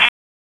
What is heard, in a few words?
Raleigh Police Northeast District Tac, p25; wake